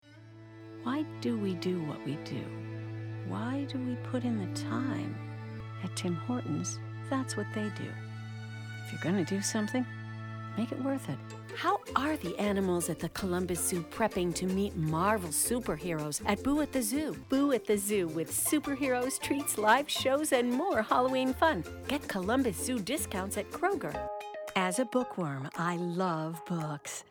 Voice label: comm, Women Commercial